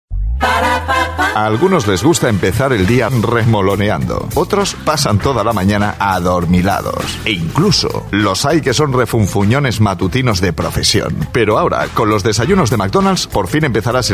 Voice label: Euro Spanish Commercial Compilation